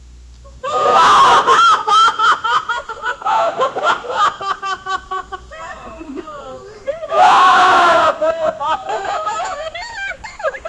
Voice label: vhs tape talking dialogue; film